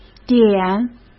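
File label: "点", Mandarin Chinese; chinese flashcards; hsk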